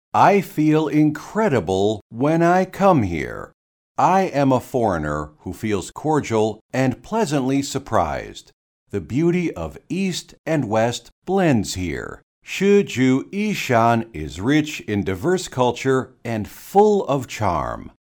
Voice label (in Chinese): 外籍英语美式自我介绍